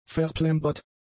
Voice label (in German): "verplembert", german; adjectives